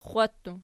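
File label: "xwa" plural, Archi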